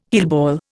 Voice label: "heelball", Indian